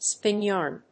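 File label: "spin yarn", English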